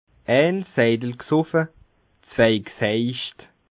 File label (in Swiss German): "seidel", Bas Rhin; une bière bue deux de pissées